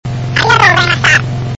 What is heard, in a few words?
sample high, voice